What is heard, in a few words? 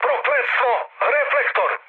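Serbian searchlite mood high; speech; War Thunder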